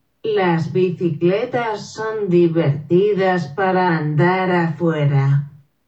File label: bicycles sp slow